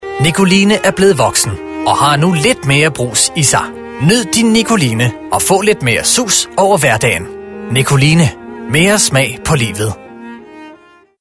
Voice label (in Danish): Reklamedemo, Reklamefilm